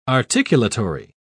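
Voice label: "articulatory", English, British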